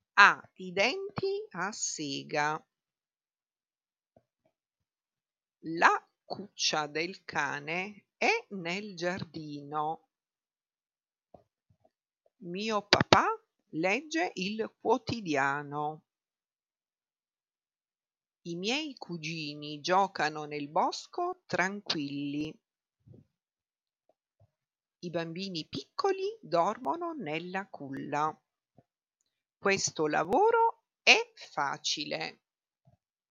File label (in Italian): DETTATO